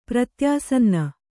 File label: "ಪ್ರತ್ಯಾಸನ್ನ", Kannada